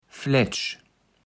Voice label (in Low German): "Fletsch", Platt